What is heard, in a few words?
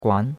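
"guan2", Chinese; Cours-de-Chinois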